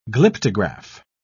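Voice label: "glyptograph", British English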